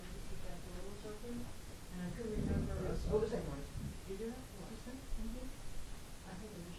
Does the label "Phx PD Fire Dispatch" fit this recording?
No